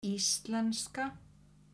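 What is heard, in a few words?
"íslenska", Icelandic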